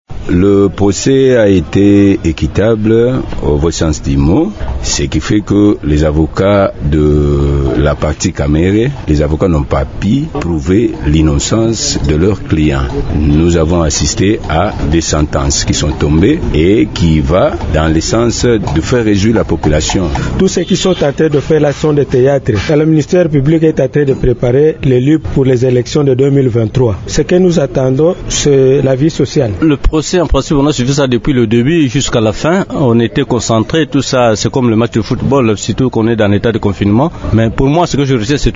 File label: vox pop Kinshasa